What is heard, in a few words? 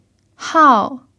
"hao4", Mandarin pinyin